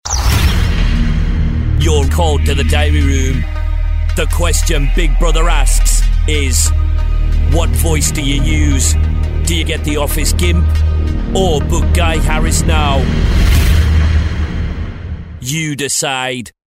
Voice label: geordie